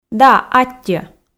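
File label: "да аттьӧ", Komi, female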